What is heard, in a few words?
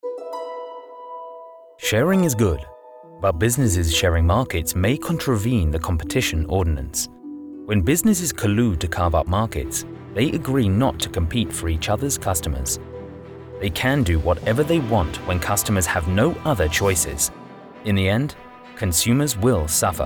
Radio